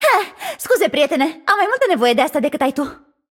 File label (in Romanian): Room2 VO Object Interact, vo, ro-ro